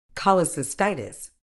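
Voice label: "cholecystitis", British English; kɒ.lɪ.sɪsˈtaɪ.tɪs; ko-li-sis-TIGH-tiss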